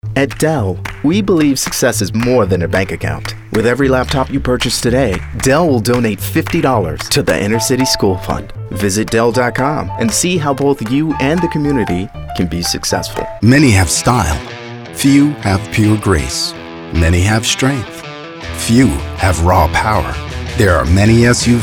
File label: voice over commercial